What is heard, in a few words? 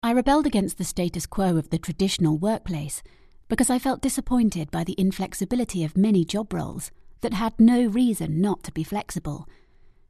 english british audiobooks